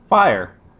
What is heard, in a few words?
"fire", pronounce; 1 syllable: fire; pronounced: f-eye-r